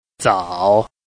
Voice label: "zao3", Chinese